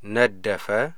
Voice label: it stung, amharic